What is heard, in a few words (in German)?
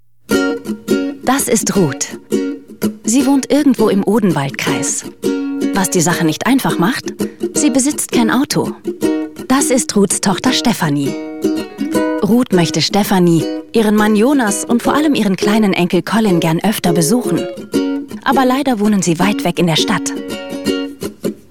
Erklärfilm; Odenwaldmobil